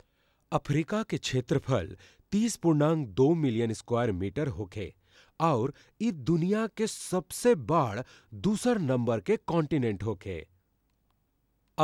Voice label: bhojpuri, Bhojpuri M